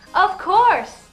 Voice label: of course girl; Voice